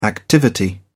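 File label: "activity", English, British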